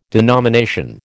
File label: "denomination", American